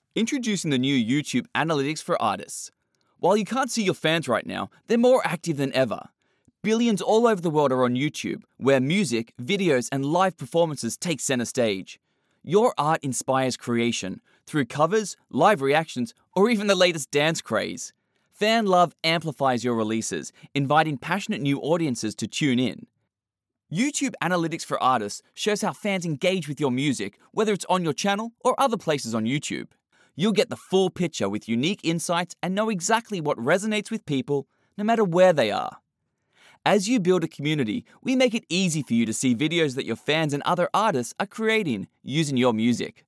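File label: English Australian M Commercial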